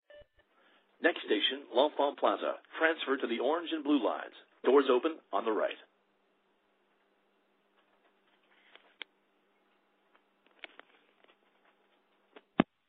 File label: metro announcement loud and clear